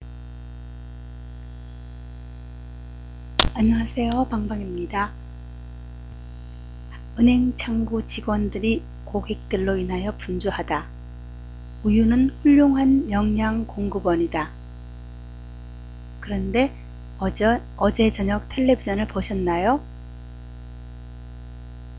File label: korean